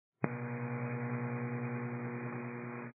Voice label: 122 725MHz, scanner radio